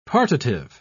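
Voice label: "partitive", British English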